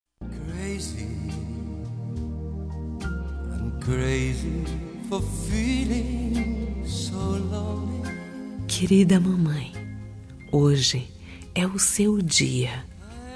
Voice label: Dia das Maes, FM

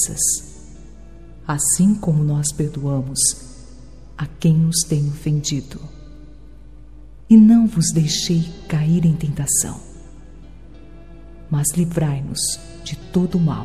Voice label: Oracao do Pai Nosso, F; religiosas

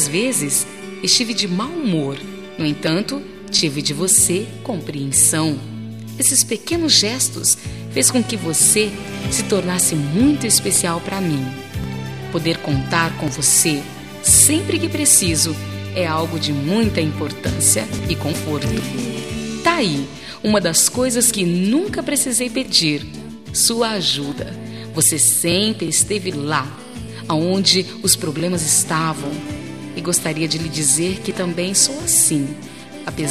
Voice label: Amizade Mensagem pra Amizade, F

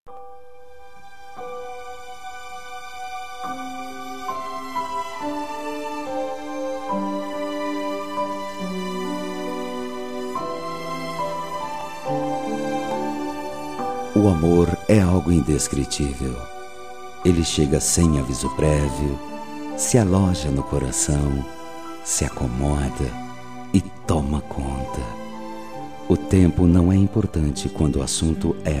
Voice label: Namorados pra Namoro Recente M

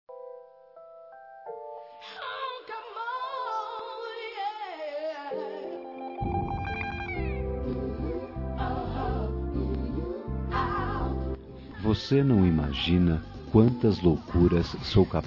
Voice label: Romântica Com Declaração

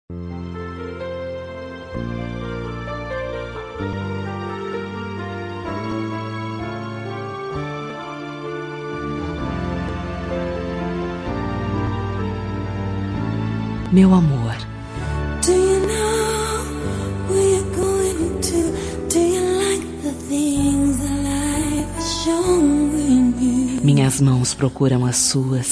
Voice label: Romântica, F

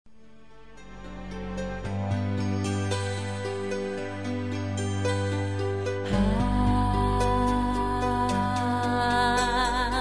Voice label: Feliz Natal e Ano Novo pra Filho, F

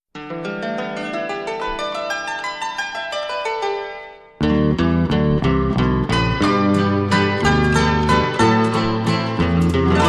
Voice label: Feliz Natal Infantil

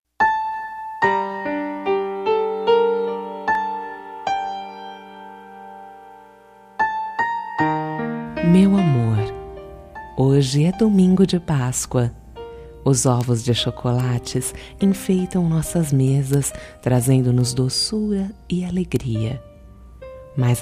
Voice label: Pascoa Romantica

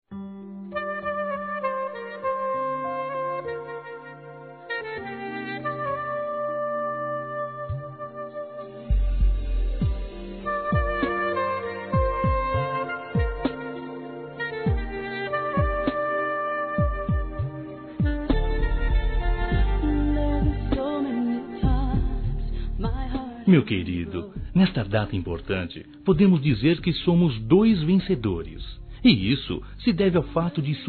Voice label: Namorados pra Namoro GLS, M

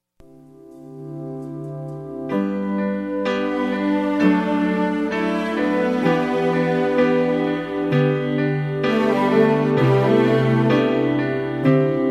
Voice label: Dia das Maes, F

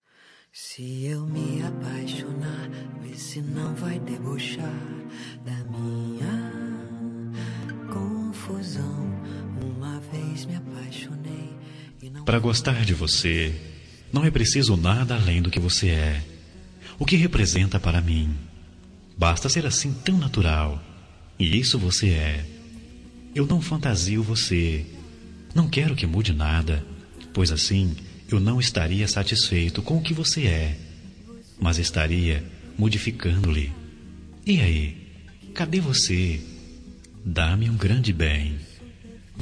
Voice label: Romântica, M; Eu Gosto de Você

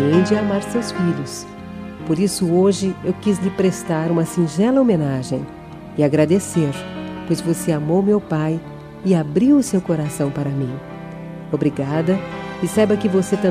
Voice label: Dia das Maes pra madrasta, F

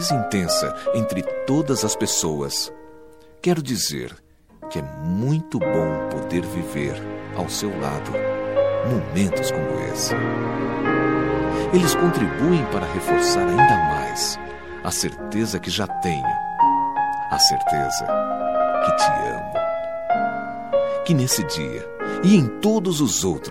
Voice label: Feliz Natal Romantico

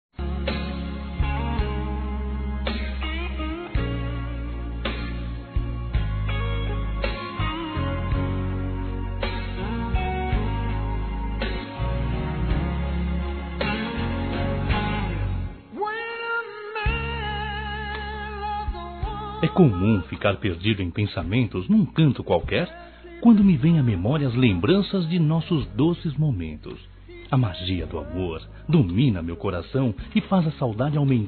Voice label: Namorados pra Namorada Que Ta Distante, M

